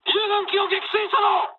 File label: player killed ally mood high, speech jp; War Thunder